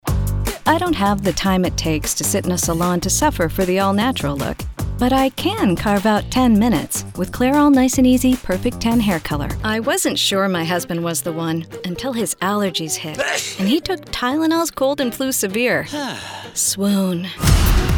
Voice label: Commercial